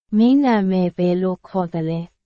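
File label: Burmese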